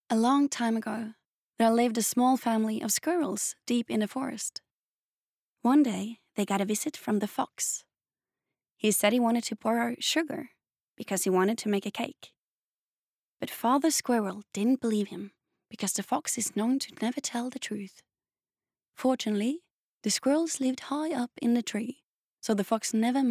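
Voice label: no english, Norwegian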